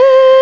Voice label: unknown female voice; tumbledemerald